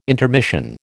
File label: "intermission", American